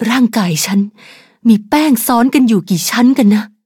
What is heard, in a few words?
level up, th; Cookie Run